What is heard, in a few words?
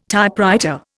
"Typewriter", Indian